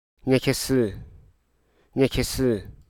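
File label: Hruso Aka; door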